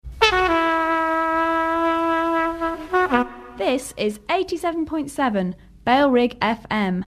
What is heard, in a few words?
female, trumpet bed; this is 87.7 bailrigg fm